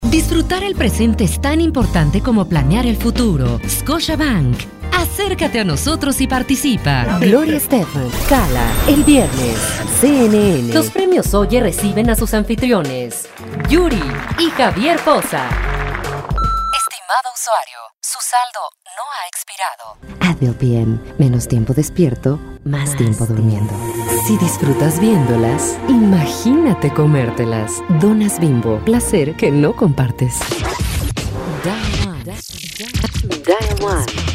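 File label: latam mexican female commercial; voice demos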